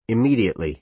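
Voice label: "immediately", American English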